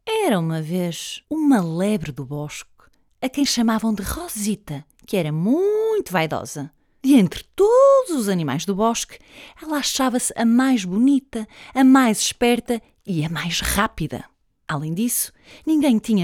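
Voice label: Character, Portuguese, European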